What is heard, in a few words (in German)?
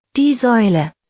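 "Säule", deutsch; karteikarten; haus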